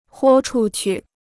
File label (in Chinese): "豁出去", Chinese